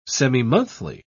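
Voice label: "semimonthly", English, British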